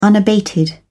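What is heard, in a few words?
"unabated", British English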